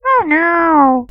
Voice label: Sound Sad Ohno